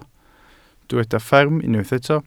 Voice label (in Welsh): "fferm" Welsh